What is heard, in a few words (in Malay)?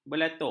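"belatuk", Malay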